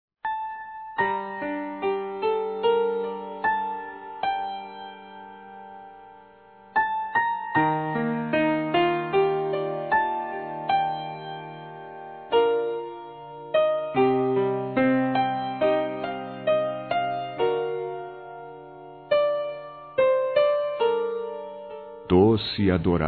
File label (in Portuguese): PARABÉNS MAMÃE, Telemensagens, Maternidade, masc